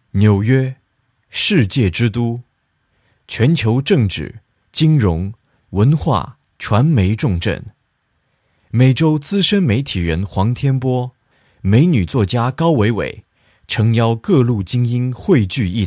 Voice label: Clear Vocal Sample

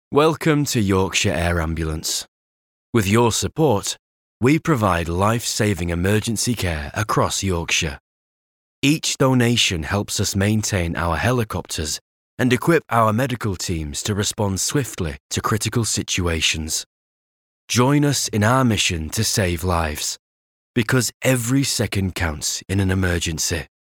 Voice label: Northern British; Yorkshire Air Ambulance

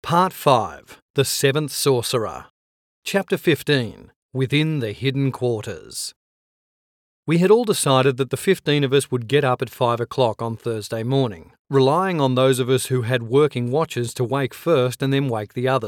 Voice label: Audiobook sample; English (Australian)

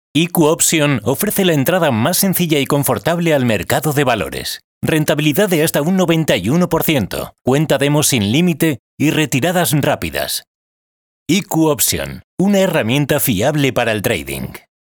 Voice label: Explainer Video; IQ

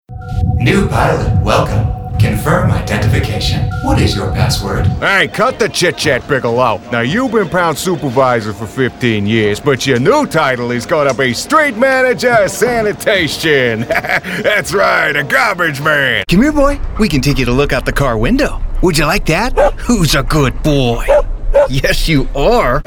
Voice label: ANIMATION

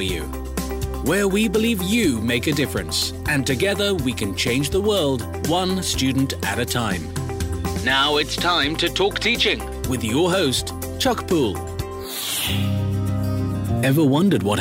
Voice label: Podcast

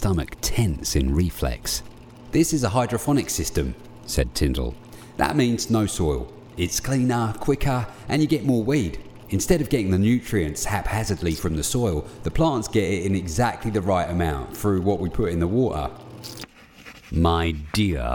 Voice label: Audiobooks no intro

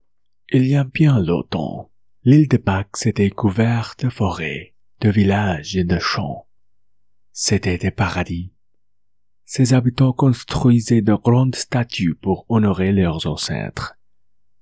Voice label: French Documentary

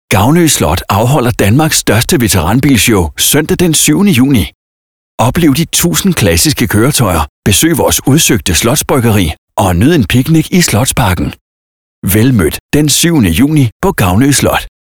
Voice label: COMMERCIAL SOFTSELL

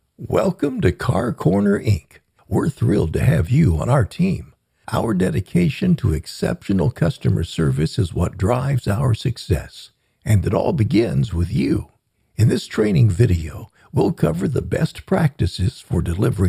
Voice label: customer training

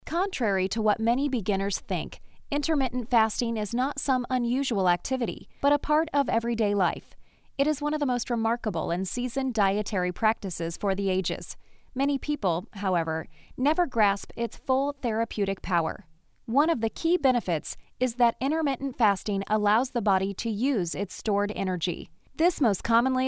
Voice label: Audio Book; Intermittent Fasting

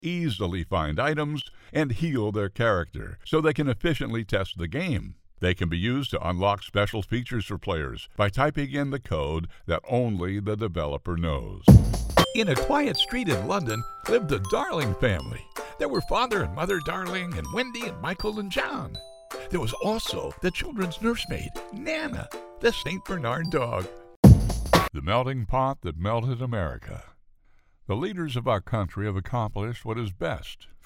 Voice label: Book Reads

